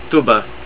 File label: "ROOM", Estonian